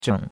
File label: "zheng3", chinois; Cours-de-Chinois